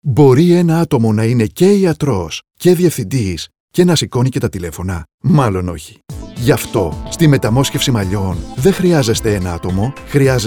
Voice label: radio spots; trixoptwsi metamosxefsi malliwn therapies; epiteleio